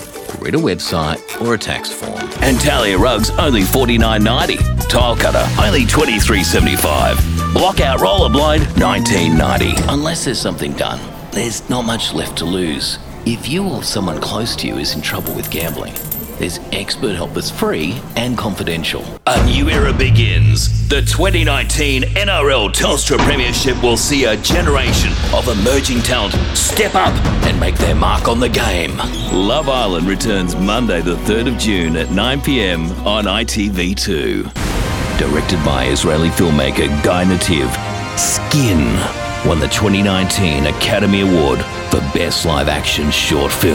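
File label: English Australian, male, accent of australia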